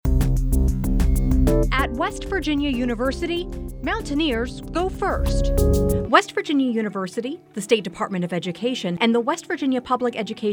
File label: radio spot; steam tac program